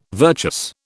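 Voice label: "virtuous", British English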